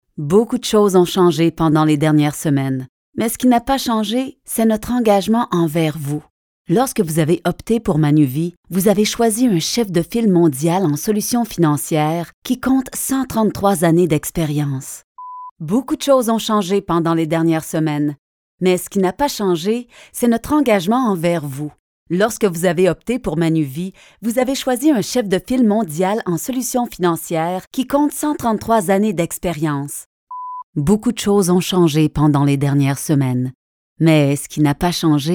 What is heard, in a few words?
FRCA DRY READ; COVID